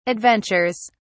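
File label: "adventures", British English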